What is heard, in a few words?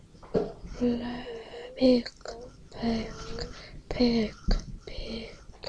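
"pick", spoken word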